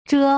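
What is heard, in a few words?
"zhe", Mandarin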